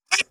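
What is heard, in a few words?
Voice Acting es, female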